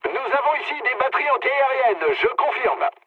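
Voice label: French damaged aa mood low; War Thunder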